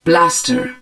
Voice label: HUD blaster, german